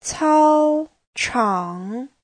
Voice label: "cao1chang3", Chinese; Giáo trình Hán ngữ